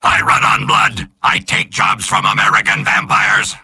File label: soldier robot; mvm